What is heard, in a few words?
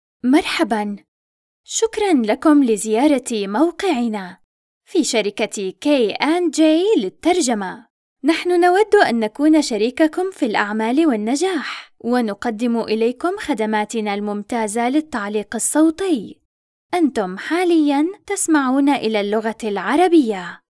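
Arabic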